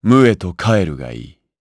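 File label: Vox Skill, voices, Japanese; Lusikiel; kings raid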